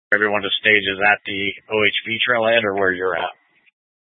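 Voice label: Incident Tactical, scanner audio recording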